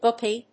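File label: "Booky", English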